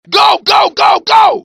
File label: alert, grunt